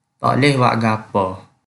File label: Kelantan-Pattani Malay